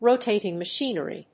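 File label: "rotating machinery", English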